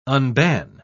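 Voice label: "unban", British English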